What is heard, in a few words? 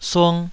"sung2", Taiwanese